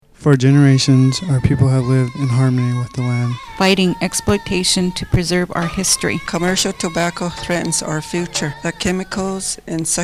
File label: northern cheyenne radio